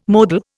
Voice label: "modal", Indian

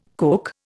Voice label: "Coke", Indian

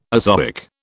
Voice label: "azoic", British

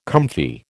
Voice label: "comfy", American

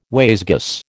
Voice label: "wayzgoose", American

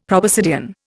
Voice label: "proboscidean", Indian; Dictionary

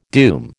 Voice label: "doom", American

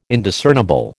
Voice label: "Indiscernible", American

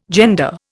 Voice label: "gender", Indian

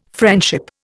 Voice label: "friendship", Indian

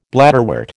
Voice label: "bladderwort", American

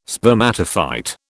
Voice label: "spermatophyte", British

